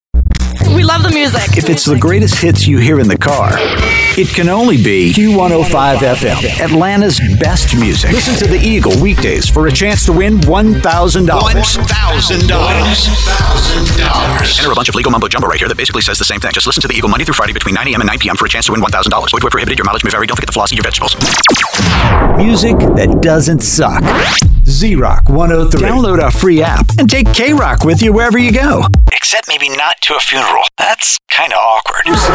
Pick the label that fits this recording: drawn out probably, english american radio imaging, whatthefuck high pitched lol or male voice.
english american radio imaging